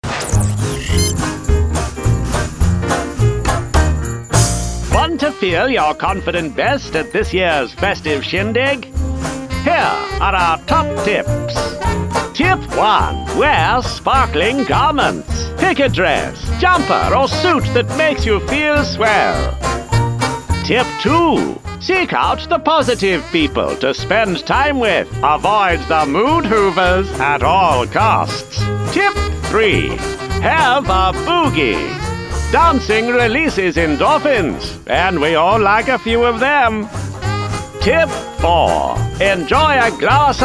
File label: NEWSREEL VOICE; PATHÉ